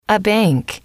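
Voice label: "a bank", female, English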